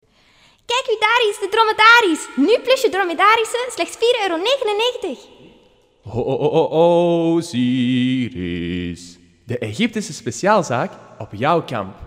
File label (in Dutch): Reklame